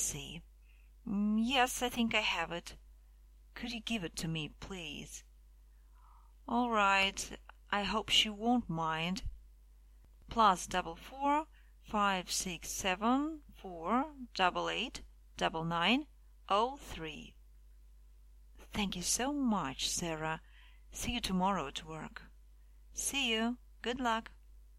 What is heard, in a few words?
phone talk